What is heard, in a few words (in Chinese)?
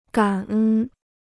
"感恩", Chinese